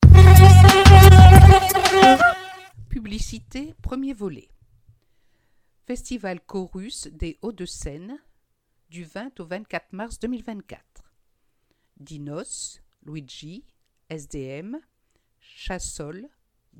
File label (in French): Publicites; L'Abeille 52